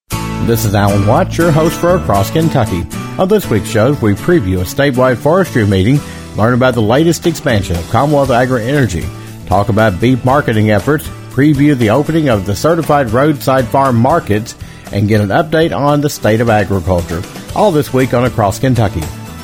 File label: promo; Across Kentucky